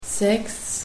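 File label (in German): "sechs", German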